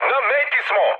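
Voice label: Serbian near waypoint mood med; War Thunder; speech srb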